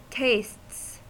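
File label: "tastes", US English; pronunciation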